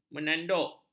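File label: "menanduk", Malay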